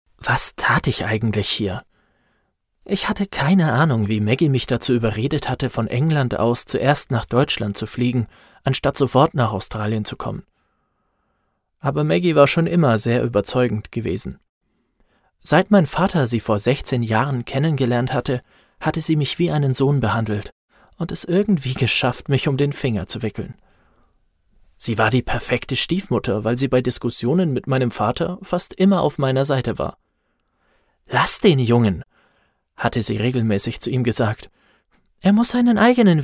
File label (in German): Hoerbuch; Barfuss durchs Feuer